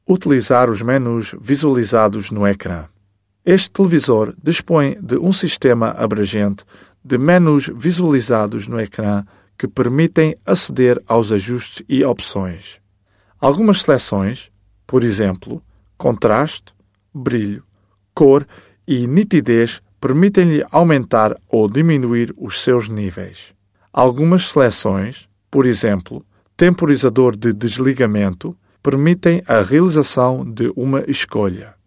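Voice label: Portuguese; Male, 20s-30s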